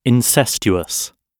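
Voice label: "incestuous", British English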